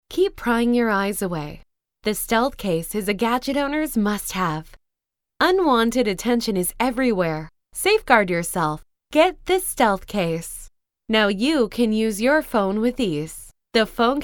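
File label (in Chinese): 外籍女声 美式英语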